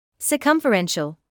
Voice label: "circumferential", English

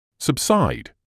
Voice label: "subside", English

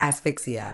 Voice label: "asphyxia", Medical English; əsˈfɪk.siː.ə; uhs-FICK-see-uh

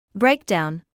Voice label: "breakdown", medical english; ˈbreɪk.daʊn; BRAYK-down

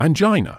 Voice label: "angina", medical english uk; ænˈdʒaɪ.nə; an-JIGH-nuh

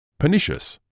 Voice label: "pernicious", English

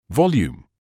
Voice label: "volume", medical English

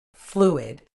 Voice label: "fluid", medical English

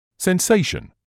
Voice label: "sensation", English

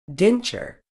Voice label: "denture", uk

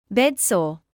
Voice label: "bedsore", English

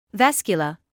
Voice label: "vascular", medical English

